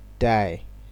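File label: "die", Nigerian Pidgin, male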